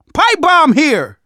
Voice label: spot grenades, survivor voice; manager; Left 4 Dead 2; left4dead2 dlc2

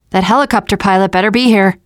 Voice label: world hospital, teengirl, voice

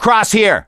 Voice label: misc directional, voice; gambler

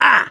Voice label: hurt minor, survivor voice mechanic

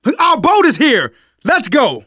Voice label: arrive boat initial, survivor voice; Left 4 Dead 2; left4dead2 dlc3

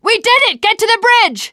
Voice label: bridge available, teengirl

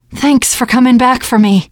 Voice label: to the rescue thanks, teen girl; player; survivor; voice

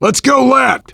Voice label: directional, voice, biker

